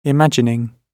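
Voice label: "imagining", British English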